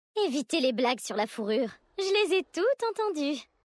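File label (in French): French Female Blague; Vulperin; Fourrure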